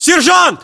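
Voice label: rank sergeant, battlechatter, Russian; localized english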